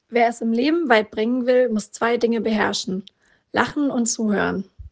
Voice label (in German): mandschurisch